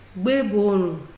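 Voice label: "gbeborun", Nigerian Pidgin, female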